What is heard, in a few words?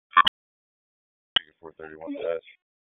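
Raleigh Police Southeast District Dispatch; wake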